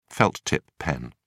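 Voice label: "felt-tip pen", British English, dictionary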